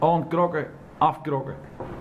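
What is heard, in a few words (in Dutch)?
"Aonkrokken", dialect; Aankoppelen, afhaken van mijnwijnwagens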